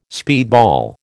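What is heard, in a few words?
"speedball", American English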